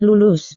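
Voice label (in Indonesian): "lulus", Indonesian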